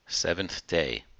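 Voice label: "seventh-day", US English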